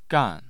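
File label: "gan", Mandarin Chinese, tone 4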